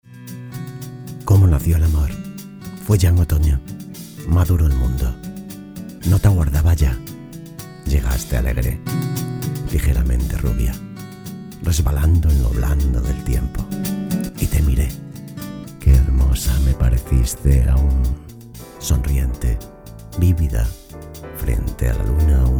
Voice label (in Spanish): locutor spanish voiceover